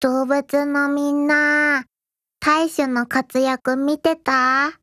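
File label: MVP, Japanese; taisch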